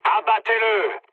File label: attack position mood low, speech fr; War Thunder